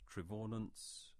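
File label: "Trevaunance" English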